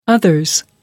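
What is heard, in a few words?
"others", British English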